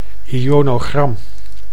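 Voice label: "ionogram", Dutch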